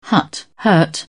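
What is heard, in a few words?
"hut", French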